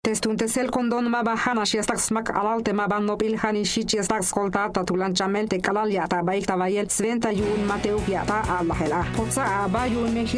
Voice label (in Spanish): Tsetsal